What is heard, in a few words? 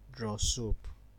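"draw soup", Nigerian Pidgin, male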